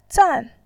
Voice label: "zan4", voice